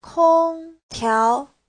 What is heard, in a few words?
"kong1 tiao2", chinese; 空调; Giáo trình chuẩn HSK3